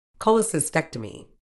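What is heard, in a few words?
"cholecystectomy", British English; kɒ.lɪ.sɪˈstek.tə.miː; kol-uh-si-STEK-tuh-mee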